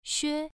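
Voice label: "xue1", Chinese pronunciation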